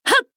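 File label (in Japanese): 「はっ！」, 男の子 王道系少年主人公 戦闘ボイス 攻撃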